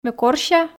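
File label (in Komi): ме корся, Komi, female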